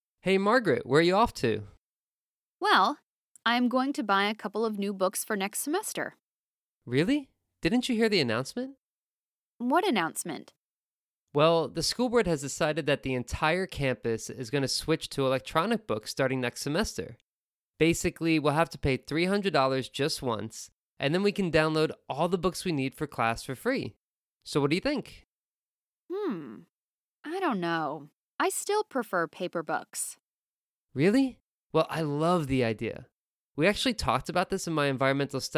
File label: student conversation; toefl; donation question type 2